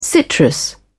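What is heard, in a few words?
"citrus", British English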